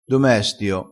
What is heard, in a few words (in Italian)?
"domestio", crespinese